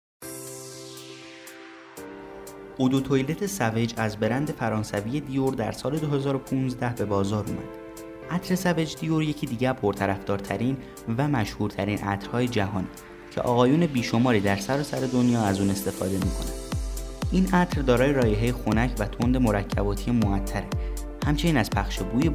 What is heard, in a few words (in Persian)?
VOICE PERSIAN; DIOR SAUVAGE EDT